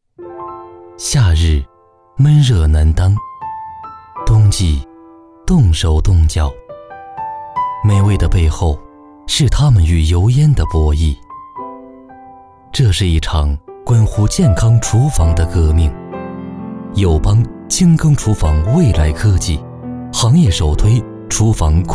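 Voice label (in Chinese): 国语 男声 走心旁白 素人; 友邦厨房空气管家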